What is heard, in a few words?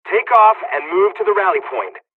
takeoff, korean; midway us; War Thunder